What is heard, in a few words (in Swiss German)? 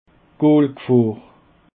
"goolgfahr", Alsace